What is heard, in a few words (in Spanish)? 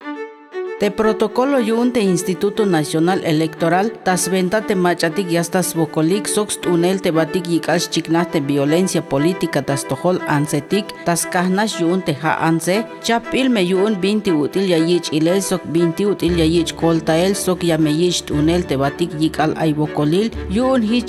TZELTAL